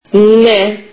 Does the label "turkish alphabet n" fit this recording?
Yes